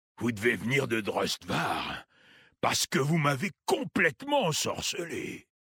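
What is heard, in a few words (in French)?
French Homme Flirt; Envoute; Kultirassien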